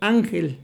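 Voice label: "angel", Mexican Spanish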